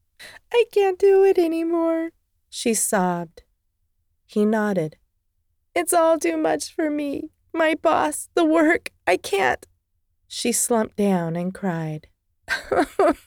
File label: Upset Woman